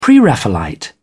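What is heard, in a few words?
"pre-raphaelite", English, British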